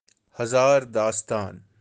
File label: "Hazaar dastaan", kashmiri